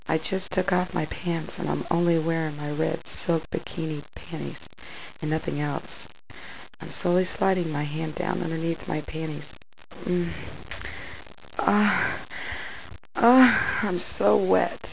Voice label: reading from script, silly lo-fi orgasms, vocals and spoken word